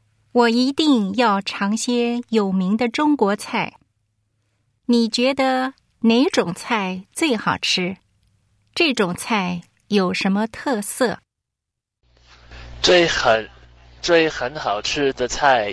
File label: chinese conversation